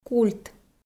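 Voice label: "культ", Komi, female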